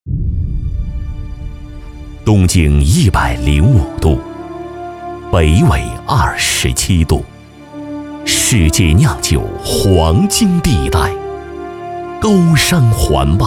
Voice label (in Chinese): 浑厚磁性